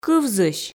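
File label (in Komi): "кывзысь", Komi, female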